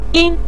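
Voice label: "yin" neutral tone, Mandarin Chinese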